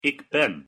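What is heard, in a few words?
"ik ben", Dutch; język niderlandzki podstawowe zwroty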